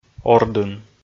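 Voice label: "ордын", Komi, male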